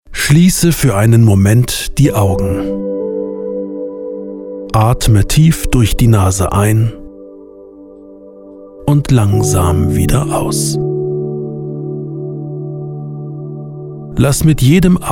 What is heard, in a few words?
Meditation